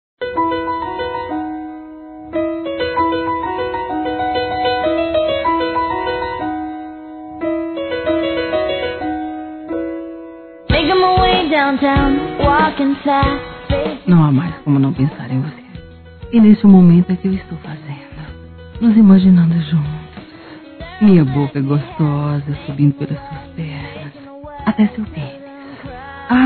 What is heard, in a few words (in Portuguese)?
Convite Erótico Feminino; Telemensagens; Sensuais